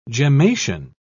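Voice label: "gemmation", British English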